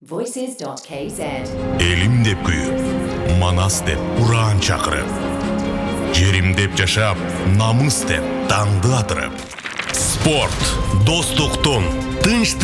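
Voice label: kyrgyz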